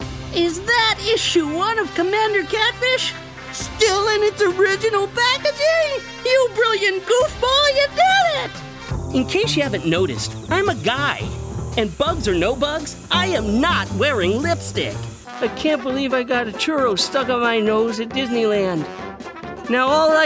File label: Animation